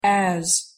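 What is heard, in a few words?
"as", English pronunciation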